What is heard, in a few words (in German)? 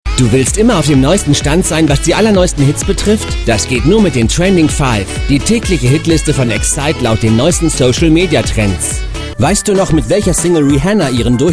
Werbung